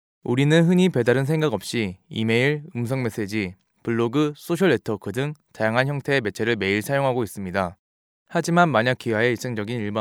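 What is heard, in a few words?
Korean, male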